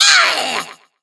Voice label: Troll VO Pain